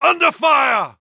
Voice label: uk Neutral